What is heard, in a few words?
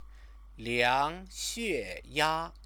"liangxueya", Chinese; 量 血 压; measure blood pressure; verb-object; Chinese lessons